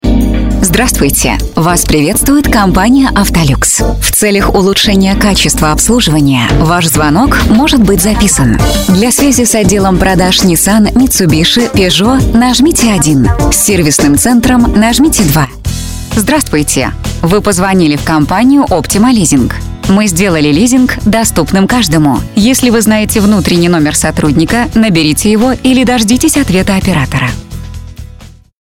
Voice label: ivr